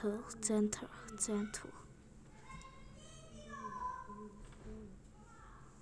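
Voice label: "gentle", spoken word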